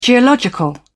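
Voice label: "geological", British English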